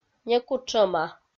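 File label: "некутшӧма", Komi, female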